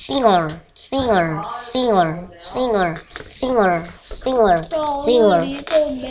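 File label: "singer", spoken word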